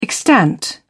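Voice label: "extant", British English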